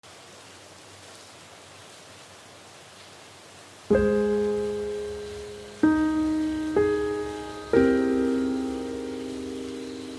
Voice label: 女 广告; 支付宝一下就好

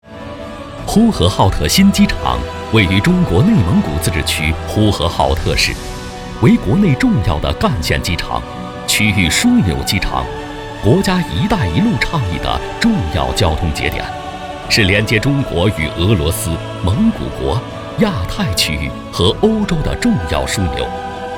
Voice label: 男 机场宣传; 呼和浩特机场

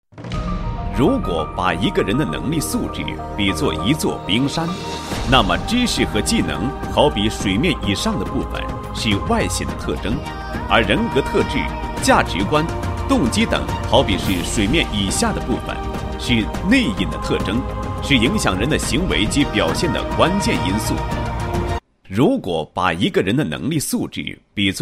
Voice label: 男, 解说; 胜任特征专题片解说

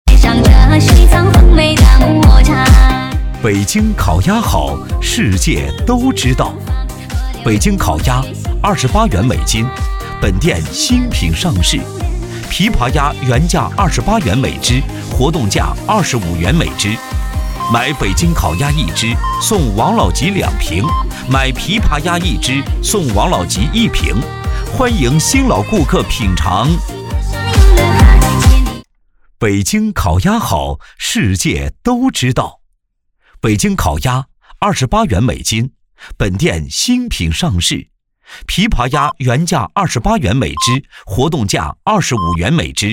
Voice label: 男 促销 北京烤鸭

